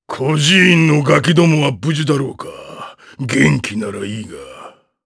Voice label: vox, jp; Ricardo; kingsraid

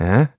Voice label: Deny, kr; Riheet; kingsraid; voices heroes

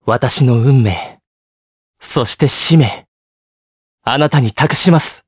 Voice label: vox, jp; Zafir; kingsraid

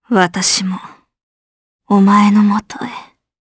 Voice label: Vox Dead, jp; Valance; kingsraid audio

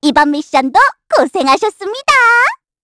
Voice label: Victory, kr; Estelle; kingsraid

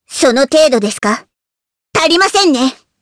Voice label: Vox Skill jp; Hanus; kingsraid

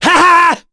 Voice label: Ricardo Vox Attack, voices heroes en; kingsraid-audio

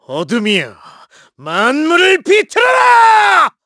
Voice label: Skill, kr; voices; heroes; KaselB; kingsraid

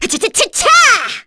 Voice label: Casting, kr; Cecilia; kingsraid

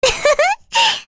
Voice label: Happy, kr; Rehartna; kingsraid; voices heroes

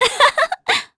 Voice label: Happy; Talisha; kings raid

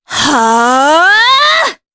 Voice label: Casting, jp; Veronica; kingsraid

